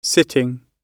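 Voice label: "sitting", British English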